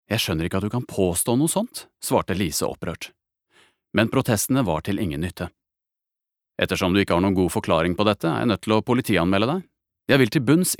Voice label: no audio book; norsk; mann